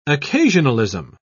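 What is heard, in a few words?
"occasionalism", British English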